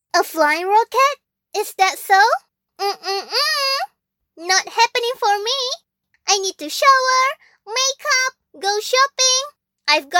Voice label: english sample

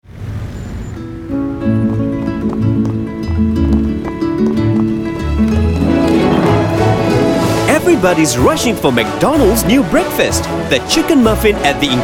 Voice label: ENG; EVERYBODYRUN

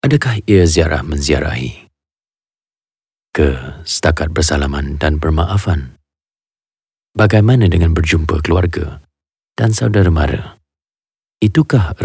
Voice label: BM Anncr Conversational

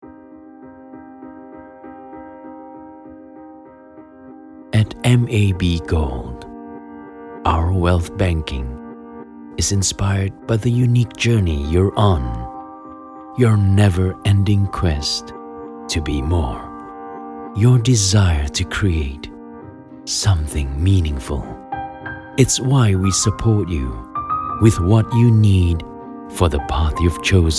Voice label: Eng Emotive Warm

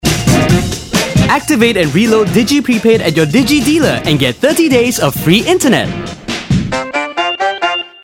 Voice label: ENG

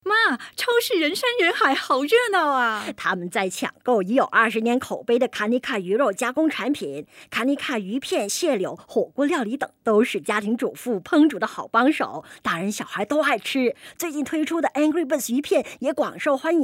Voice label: Mother Mandarin

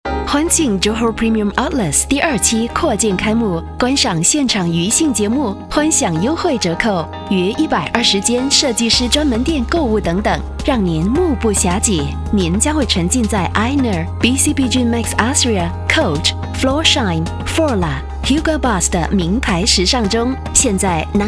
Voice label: Mand; JPO

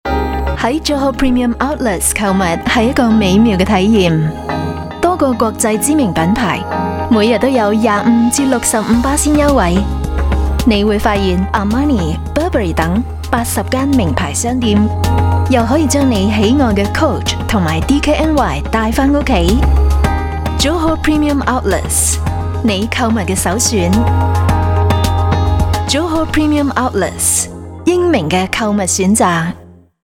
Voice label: Cantonese

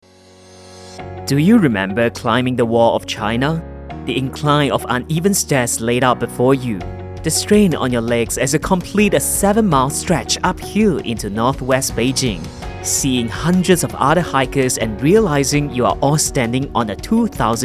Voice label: English - Conversational, Friendly, Casual

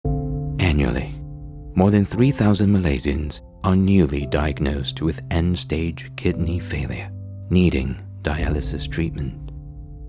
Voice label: Commercial Gentle Caring Assuring Authoritative

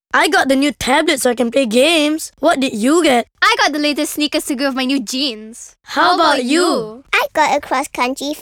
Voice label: SUBARU FORESTER COMPARISON ENG

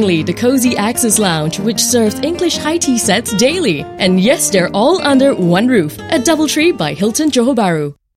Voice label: Food Promo; Double Tree Hilton JB

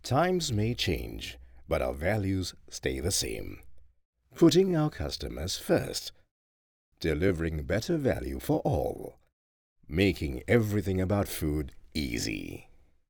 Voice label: english deep friendly

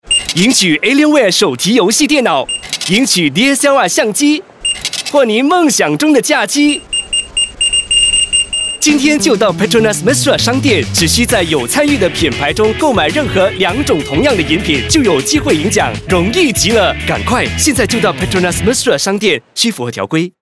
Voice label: MAND; PETRONAS MESRA

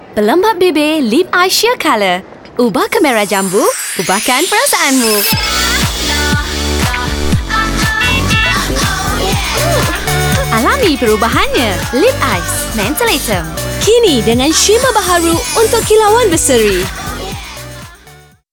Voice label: young anncr; Lipice

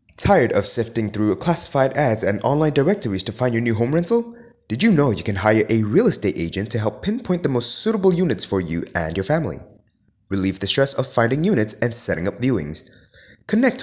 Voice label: English

